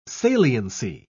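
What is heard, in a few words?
"saliency", British English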